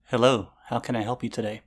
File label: mic ideal